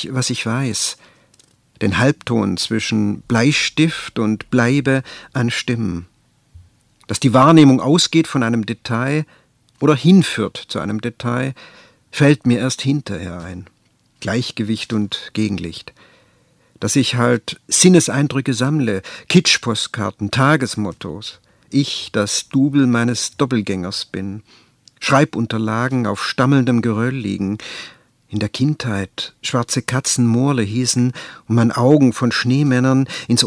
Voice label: Gedicht In ein hingehaltenes Mikro sprechen

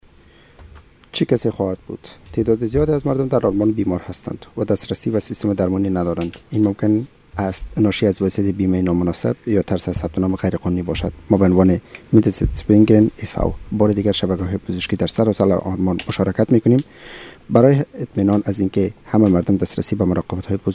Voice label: Farsi; Medinetz

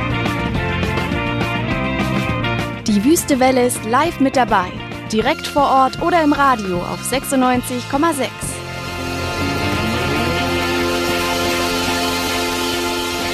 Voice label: Ankuendigung Stadtfest Jingle

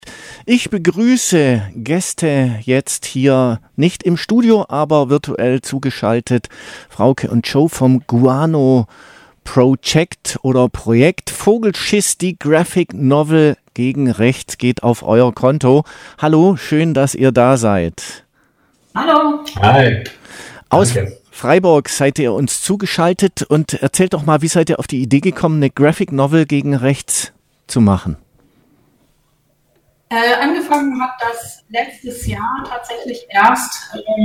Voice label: Interview; Vogelschiss

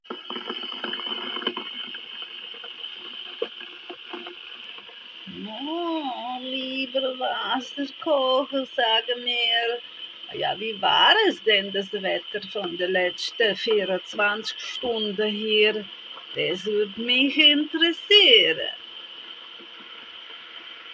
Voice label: Jingel Orakel fragt Wasserkocher; Orakel Dula

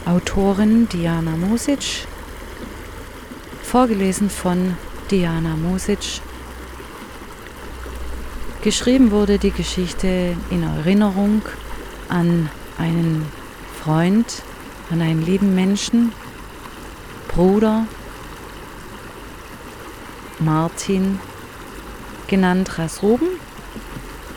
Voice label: Kurzgeschichte Lesung; Bunte Schlange

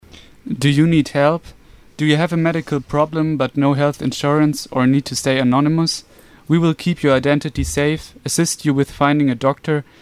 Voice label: Englisch; Medinetz

